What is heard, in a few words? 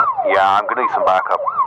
emergency police radio male officer in car sirens yes im gonna need some backup